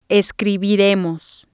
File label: "escribiremos", Spanish